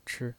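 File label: "chi", Mandarin Chinese, neutral tone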